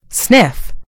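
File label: "sniff", British English; gcompris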